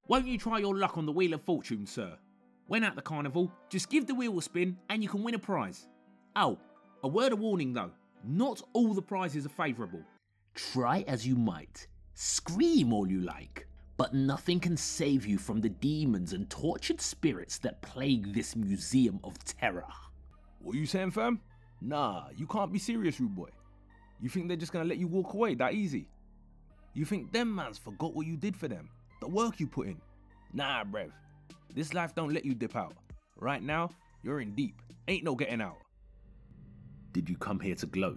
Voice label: Character voice reel